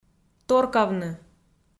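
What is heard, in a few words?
"торкавны", Komi, female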